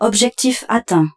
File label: French, Waypoint